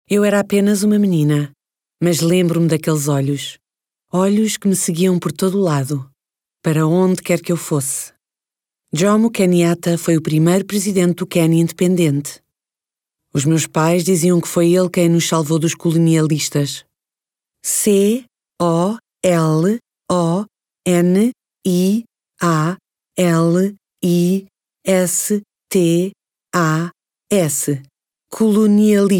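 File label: Portuguese, narrative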